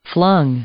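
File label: "flung", English; verb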